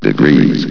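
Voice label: "degrees", male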